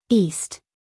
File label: "east" us female; everyone-can-use-english